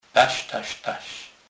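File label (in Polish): "tastas", kurpie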